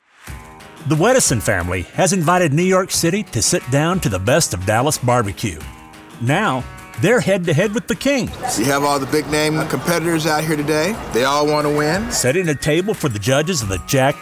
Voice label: TV NARRATION, American